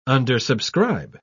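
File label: "undersubscribe", English, British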